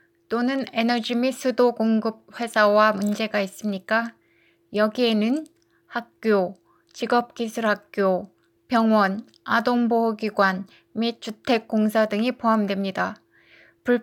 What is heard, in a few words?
Korean